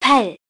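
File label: voice Korean, keytone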